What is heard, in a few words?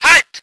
voice ger vehicle